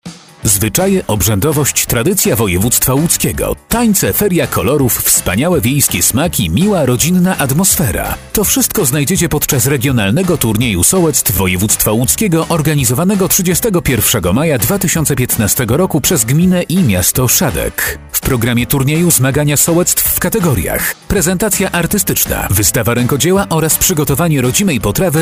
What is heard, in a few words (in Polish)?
kom. radiowy; Turniej Solectw